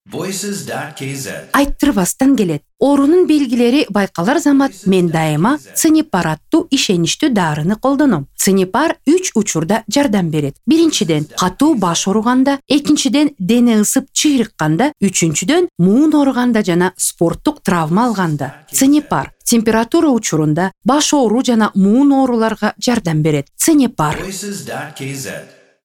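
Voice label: Kyrgyz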